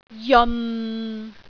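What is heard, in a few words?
"jeon6", Cantonese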